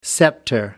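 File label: "scepter", British English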